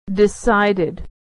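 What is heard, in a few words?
"decided", American English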